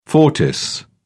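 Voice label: "fortis", English, British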